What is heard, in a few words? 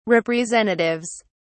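"representatives", British English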